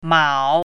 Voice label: "mao3", Chinese pinyin

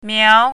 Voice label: "miao2", Chinese pinyin

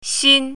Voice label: "xin1", Chinese pinyin

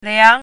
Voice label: "liang2", Chinese pinyin

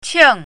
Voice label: "qing4", Chinese pinyin

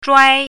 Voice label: "zhuai1", Chinese pinyin

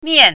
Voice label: "mian4", Chinese pinyin

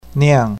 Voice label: "niang4", Chinese pinyin

